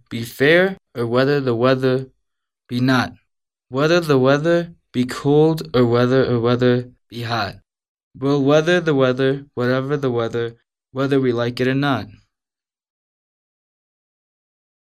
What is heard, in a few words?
pronunciation practice